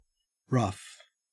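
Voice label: "rough", Australian English; pronunciations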